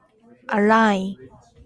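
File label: "alien", Japanese, female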